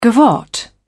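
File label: "gavotte", British English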